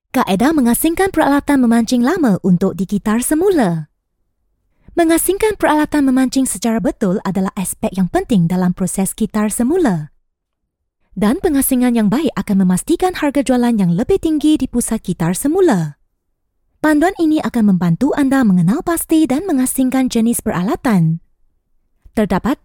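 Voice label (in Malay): Malay, Documentary; GoLocalise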